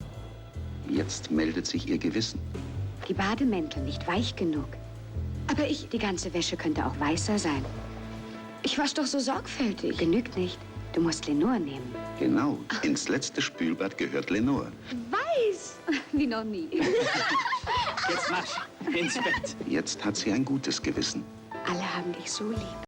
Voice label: Commercial; Lenor